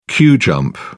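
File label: "queue jump", British English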